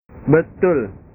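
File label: "betul", Indonesian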